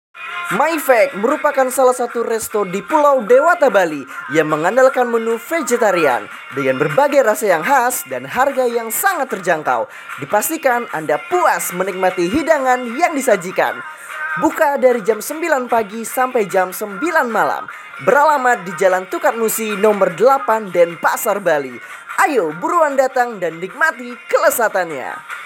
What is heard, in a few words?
Indonesia casual